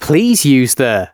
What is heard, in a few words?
announcements male